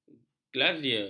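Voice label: "glasier", Malay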